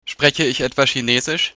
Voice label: incomprehensible, german